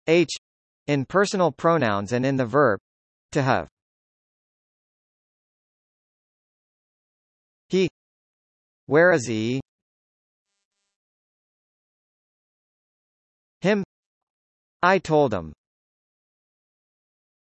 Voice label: h, US; der buchstabe h